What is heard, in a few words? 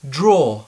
"draw", English; skola; skolni cinnosti